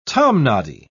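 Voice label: "tomnoddy", British English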